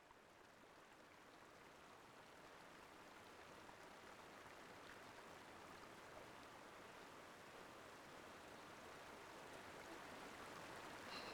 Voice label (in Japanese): ゆらゆら添い寝ウィスパー, ASMR